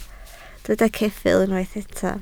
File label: "ceffyl", Welsh